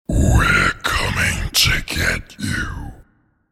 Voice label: We're Coming to get you spoken in deep monster voice.; Evil Voices